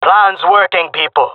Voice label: round winning, male; player vo; Counter Strike Model Pack; CS:GO

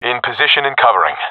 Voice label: in position, professional epic; vo; Counter Strike Model Pack; CS:GO

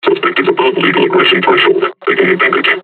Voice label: taunt, combine charger; Half Life Alyx

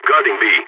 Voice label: defending bombsite b, balkan

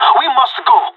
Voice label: radio lets go, pirate

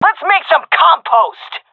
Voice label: attacking, seal fem; vo; Counter Strike Model Pack; CS:GO

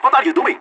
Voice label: friendly fire, vo agents; leet

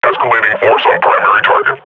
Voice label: firing, combine grunt

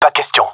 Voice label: disagree, gendermerie male

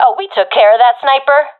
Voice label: sees sniper killed, professional fem; vo; Counter Strike Model Pack; CS:GO

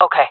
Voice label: affirmation, fem

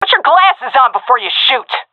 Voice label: taking fire friendly, seal fem; vo; Counter Strike Model Pack; CS:GO

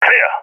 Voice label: sees area clear, balkan; balkan epic

